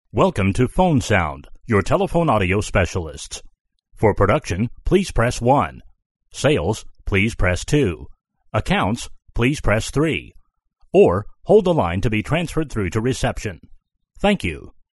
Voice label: male US telephone; uk sound studios